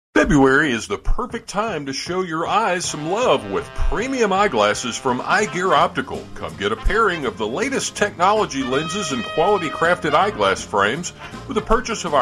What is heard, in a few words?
Promo Ad